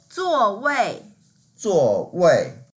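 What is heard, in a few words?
"zuo4 wei4", Mandarin Chinese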